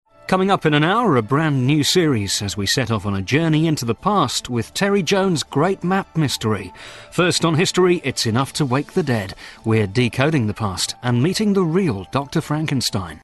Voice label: continuity